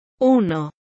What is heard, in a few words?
"Uno" spanish